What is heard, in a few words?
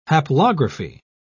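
"haplography", British English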